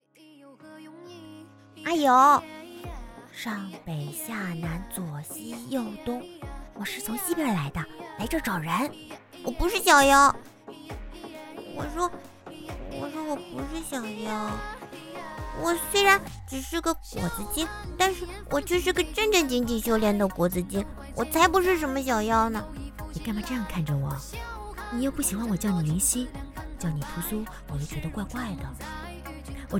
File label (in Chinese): 多角色 混配